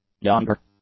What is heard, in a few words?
"yonder", english; adjectives